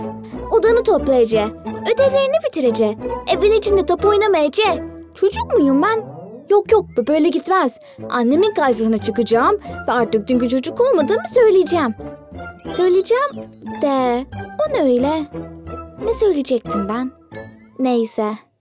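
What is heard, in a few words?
Turkish, Commercial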